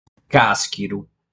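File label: "Càschiru", Sardinian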